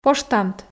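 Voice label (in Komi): "поштамт" Komi, female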